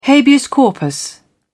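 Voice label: "habeas corpus", British English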